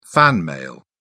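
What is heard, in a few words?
"fan-mail", British English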